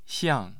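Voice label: "xiang4", Mandarin Chinese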